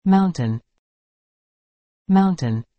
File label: "mountain", English